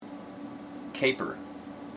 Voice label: "caper", IPA